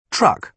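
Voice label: "truck", British English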